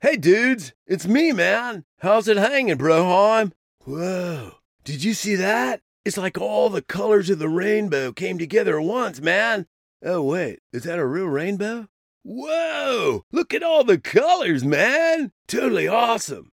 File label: Californian; Voice, Acting & Singing samples